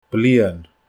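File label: "blian", Scottish Gaelic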